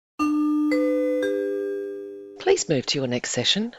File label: nbc chimes medium please move to your next session